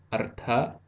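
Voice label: "arta" Ossetian male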